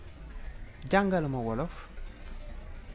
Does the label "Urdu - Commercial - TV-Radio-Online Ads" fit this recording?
No